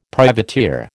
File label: "privateer", American